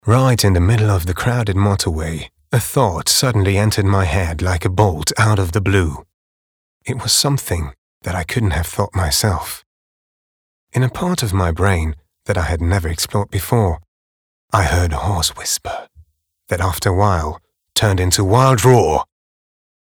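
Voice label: British English, Mysterious Geheimnisvoll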